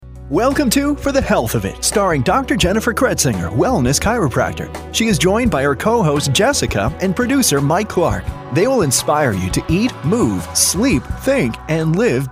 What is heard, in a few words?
Voiceovers Podcast